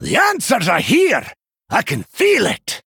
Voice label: Male Dwarf Play; Brann Bronzebeard